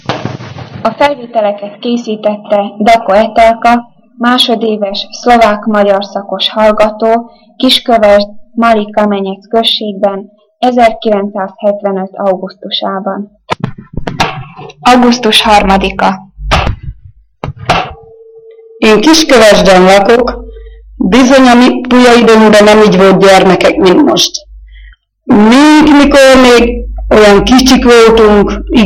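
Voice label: dialect collection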